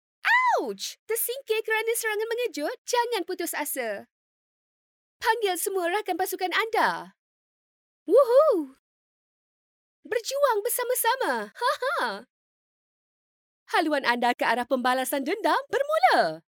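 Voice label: Malay, Malaysia, female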